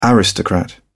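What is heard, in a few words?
"aristocrat", British English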